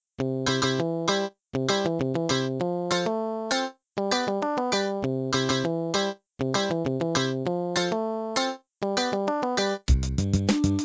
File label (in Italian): legnano dialetto